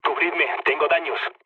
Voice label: aircraft damaged, mood low, speech; War Thunder